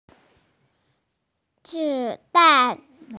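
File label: "zi3dan4", Mandarin Chinese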